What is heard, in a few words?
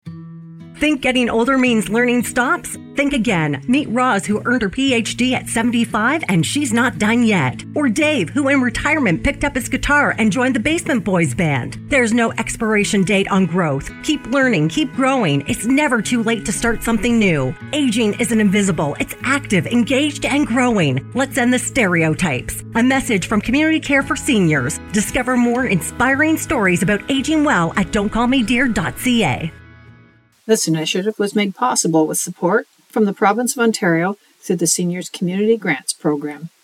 radio